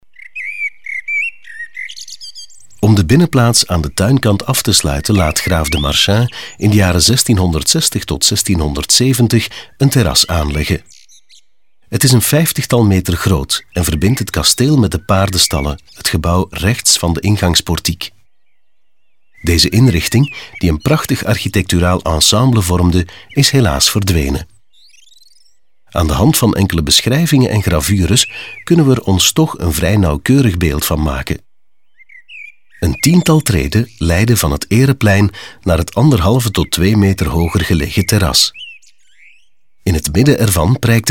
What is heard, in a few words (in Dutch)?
audioguide nl adulte; modave